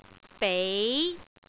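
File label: "bei2", Cantonese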